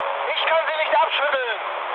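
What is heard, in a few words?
German aircraft damaged mood med, speech; War Thunder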